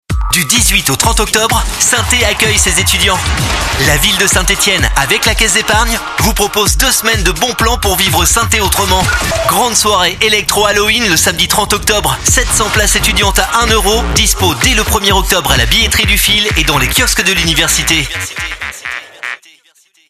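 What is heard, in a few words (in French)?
spot, spots radio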